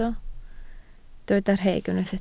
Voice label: "rheg", Welsh